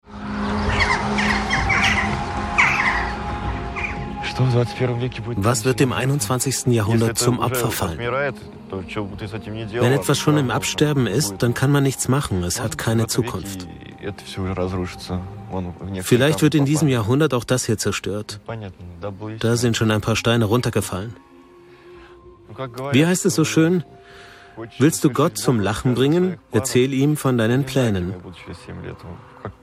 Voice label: DEUTSCH MALE Voice Over; ARTE; Geboren in der Sowjetunion

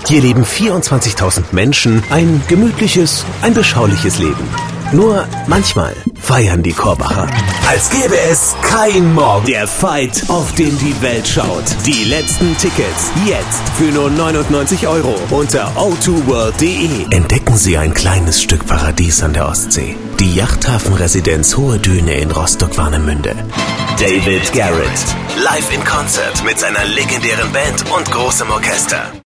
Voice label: DEUTSCH MALE Werbung Off Diverse Offstimme

